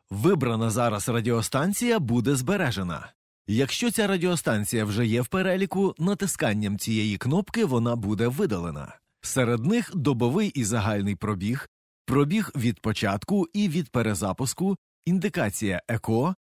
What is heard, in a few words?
ukrainian voiceover locutor ucraniano